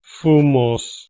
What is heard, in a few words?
"fumos", Latin